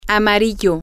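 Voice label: yellow, spanish, female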